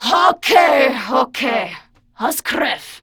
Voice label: Patrol, Grineer Female; Warframe; Battle Barks